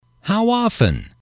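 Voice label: "how often", English, male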